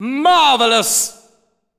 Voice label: "Marvelous" (Echo), announcer; DDR Extreme SFX; evaluation b; Dance Guru's DDR Archive